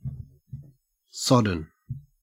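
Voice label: "sodden", Australian English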